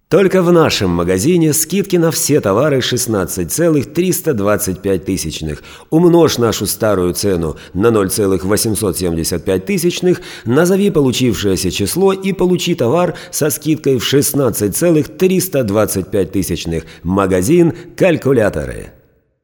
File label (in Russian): Diktori studii Reclama